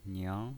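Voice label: "niang", pinyin voice, Mandarin Chinese, second tone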